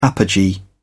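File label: "apogee", British English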